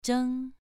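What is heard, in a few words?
"zheng2", Chinese pronunciation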